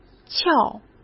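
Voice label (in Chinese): "翘", Mandarin Chinese; hsk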